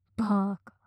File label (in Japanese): "バーカ" 強く 近 右, ASMR; マゾオスのあなたに敗北射精の快楽を叩き込む音声